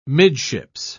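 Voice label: "midships", British English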